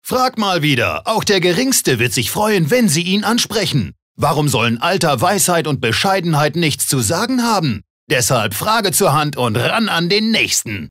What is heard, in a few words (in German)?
Werbung Dynamisch